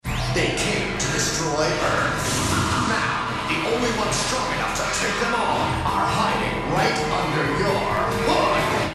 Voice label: Commercial Narrator; Robots In Disguise television show